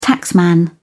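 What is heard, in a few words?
"taxman", British English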